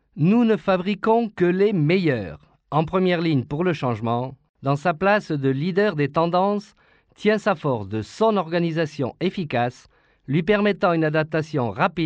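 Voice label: French, male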